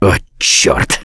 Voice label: reaction negative, russian; Gambler